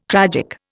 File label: "tragic", Indian